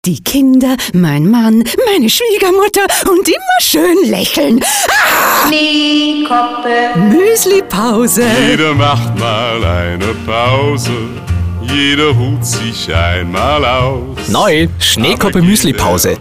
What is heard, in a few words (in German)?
Muesli Pause Hysterisch